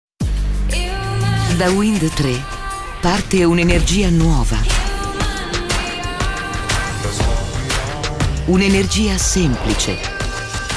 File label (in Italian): spot pubblicita; energia nuova luce e gas; windtre; fiorello